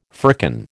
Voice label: "firkin", American